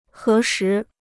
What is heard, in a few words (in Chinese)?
"核实", Chinese